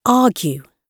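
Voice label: "argue", British English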